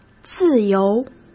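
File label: "自由", Mandarin Chinese; hsk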